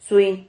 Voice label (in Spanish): "zuin", Silabas